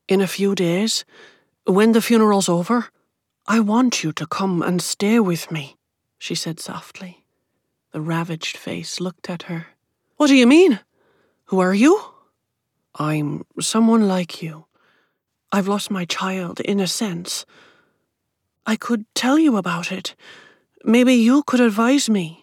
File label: Literary Fiction Demo; Binchy